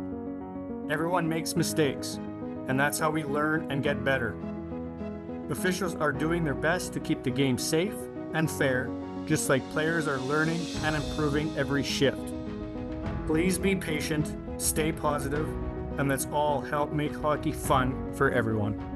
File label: rink announcement officiating message for abuse; PA Rink Announcements; Rink Safe; safe sport; public address